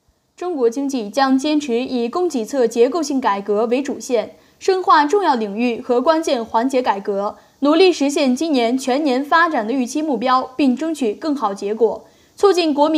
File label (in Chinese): 新闻类